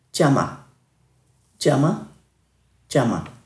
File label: "djama", Dhurga